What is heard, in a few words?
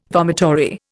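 "vomitory", Indian English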